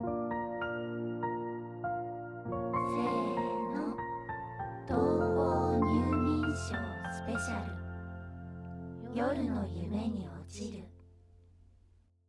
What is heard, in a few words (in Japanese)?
タイトルコール